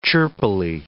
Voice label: "chirpily", English